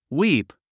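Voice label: "weep", English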